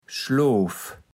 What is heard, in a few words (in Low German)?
"Schloof", platt